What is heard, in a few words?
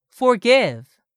"forgive", English; 3000 words